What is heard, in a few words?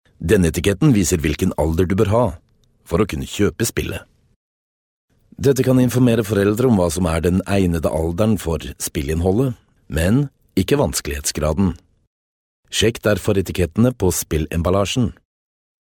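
Norwegian, male, various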